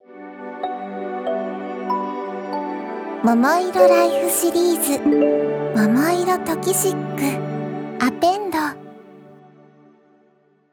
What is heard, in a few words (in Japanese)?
タイトルコール